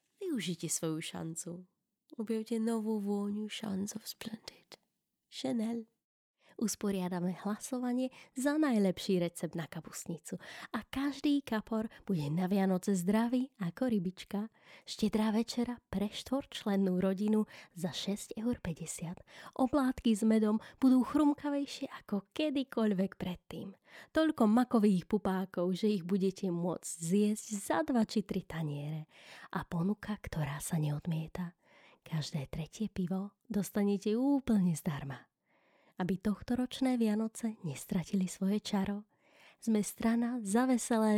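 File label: Slovak, Female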